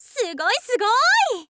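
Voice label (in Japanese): sugoi sugoi, Japanese, girl; voice; info girl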